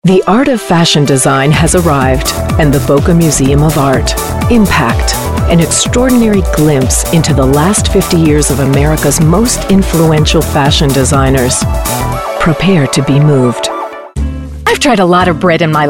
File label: Announcer